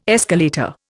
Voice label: "escalator", Indian